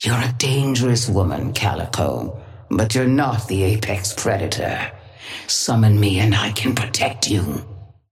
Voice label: female ally start; Patron; nano

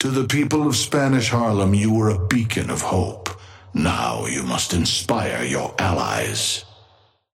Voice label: Patron male ally start; tengu

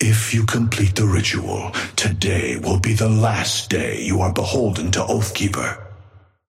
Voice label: male ally ghost start

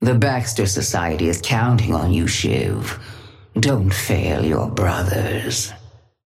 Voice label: female ally start

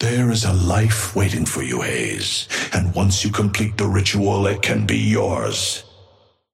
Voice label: Patron male ally haze start

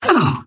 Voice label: pain small

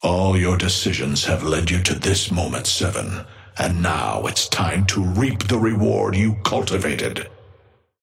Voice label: male ally gigawatt start; Patron

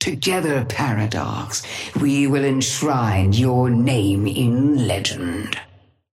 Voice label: Patron female ally chrono start

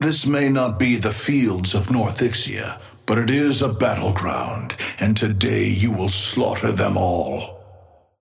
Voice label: male ally start; Patron; wrecker

